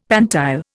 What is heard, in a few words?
"pantile", Indian; Dictionary